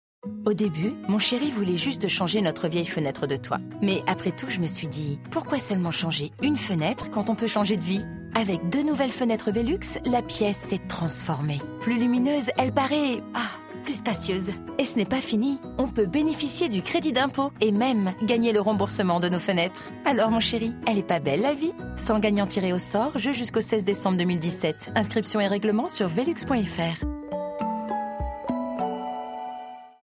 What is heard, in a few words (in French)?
Spot Radio jeune femme heureuse; Pub Radio; Velux